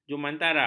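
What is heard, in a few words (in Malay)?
"jumantara", Malay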